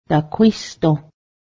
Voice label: give me money, Cayuga